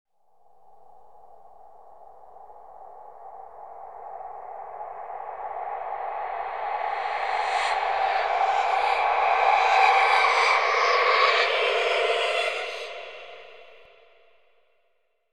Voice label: creepy voice of a little girl